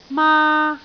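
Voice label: "maa1", Cantonese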